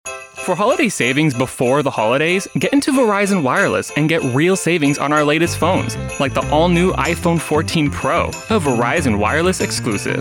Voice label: Commercial unslated; Demo; shorter

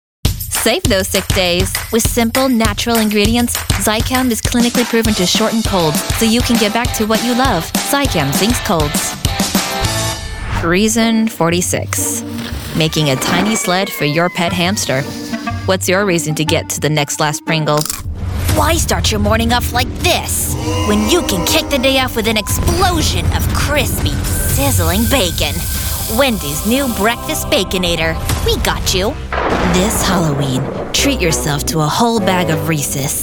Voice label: Commercial

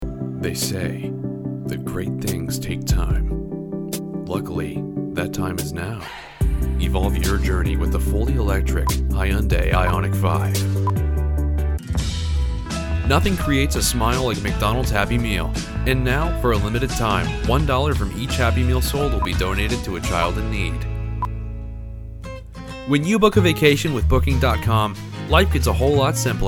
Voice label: Commercial

